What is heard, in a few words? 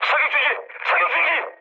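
Korean player friendly fire mood low, speech; War Thunder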